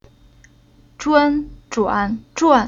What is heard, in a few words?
"Zhuan", Chinese